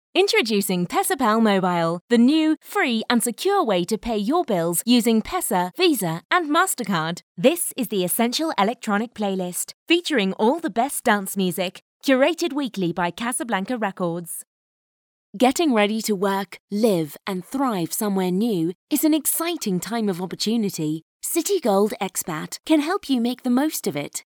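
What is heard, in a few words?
British English, female, voice over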